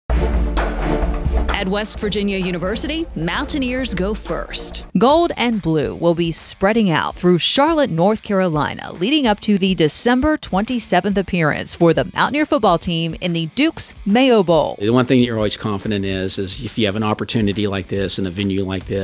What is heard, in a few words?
radio spot; dukes mayo bowl